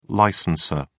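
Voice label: "licensor", British English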